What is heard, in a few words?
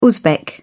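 "uzbek", British English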